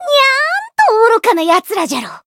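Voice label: encounter, jp; otoha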